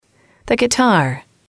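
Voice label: "the guitar", English, female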